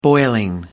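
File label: "boiling", British English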